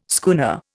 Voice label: "schooner", Indian